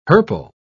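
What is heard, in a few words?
"hirple", British English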